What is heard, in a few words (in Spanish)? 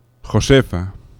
"josefa", fricatives; laboratori fonetica